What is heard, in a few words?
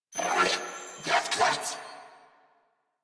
Surprised, Female; en; Warframe; New War; Narmer Grineer